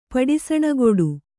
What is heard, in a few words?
"ಪಡಿಸಣಗೊಡು", Kannada